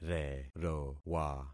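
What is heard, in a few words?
"rerowa", concatenated syllables; Affixing experiment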